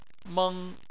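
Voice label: "meng", Chinese, first tone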